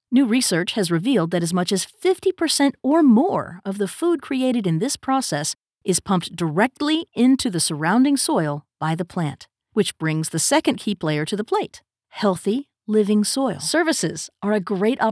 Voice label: DRY eLearning and Narration